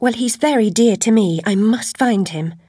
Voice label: chitchat; svencoop; Alice

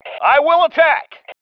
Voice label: I attack, male

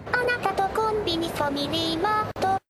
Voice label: chitchat, voice commands; svencoop; Miku

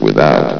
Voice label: "without", vox; cstrike

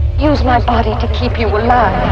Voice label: usebody, radio, female

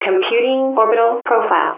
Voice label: intercom; ProjectMobius

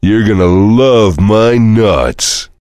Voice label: chitchat; svencoop; voice commands; Duke

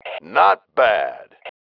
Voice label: NICE, MALE

